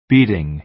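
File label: "beating", American English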